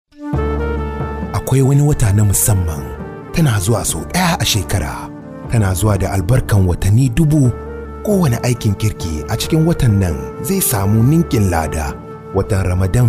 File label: Hausa commercials